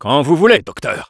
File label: Spy activate charge, fr; "Quand vous voulez, Docteur."; Commandes Vocales du Spy